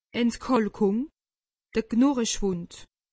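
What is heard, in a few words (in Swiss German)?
"entkalkung", Alsatian; medecine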